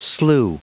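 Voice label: "slough", pronunciation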